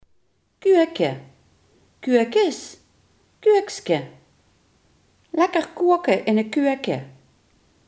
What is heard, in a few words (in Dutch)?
"keuken", Limburgs